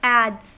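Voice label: "adze", US English; pronunciations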